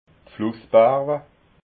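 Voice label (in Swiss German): "flussbarwa", Alsatian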